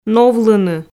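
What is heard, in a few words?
"новлыны", Komi, female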